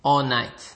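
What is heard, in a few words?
"allnight", Nigerian Pidgin, male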